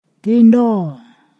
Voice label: "stand", Ponosakan